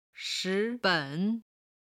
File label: "shi2 ben3", Chinese